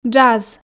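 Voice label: "джаз", Komi, female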